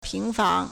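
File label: "ping2fang2", Mandarin Chinese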